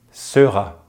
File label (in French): "sera", French; easypronunciation; Consonantes en francés